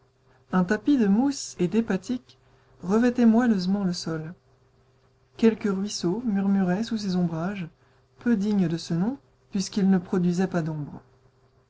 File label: female, French; mls samples; French Anonymizer